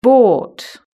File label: "bought", british english